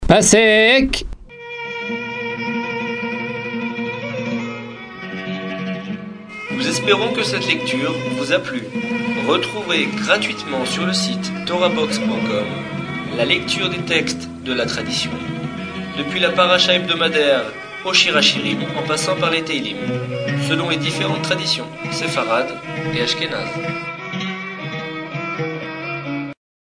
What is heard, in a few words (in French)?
apprentissage taamim selon le rite constantinois; paracha